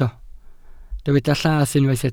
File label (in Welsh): "llath", Welsh